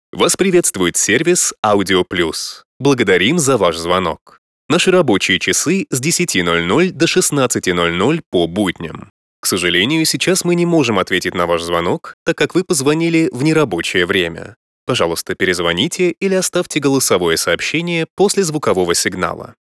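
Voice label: male IVR; Вас приветствует магазин «Название магазина»! Ваш звонок очень важен для нас, оставайтес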